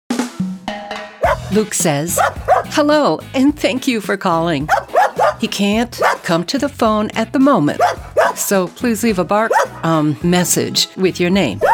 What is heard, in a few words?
Voicemail; Next Home CasaBella Elite